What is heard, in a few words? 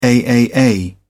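"aaa", British English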